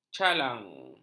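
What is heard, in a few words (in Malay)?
"calang", Malay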